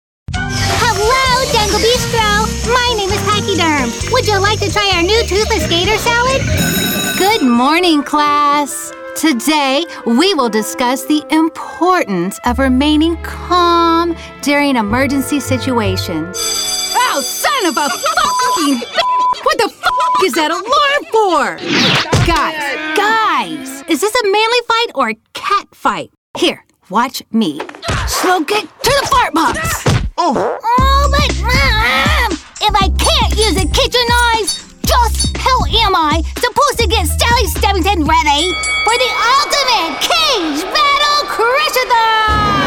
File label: Animation Demo